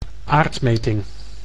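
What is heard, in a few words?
"aardmeting", Dutch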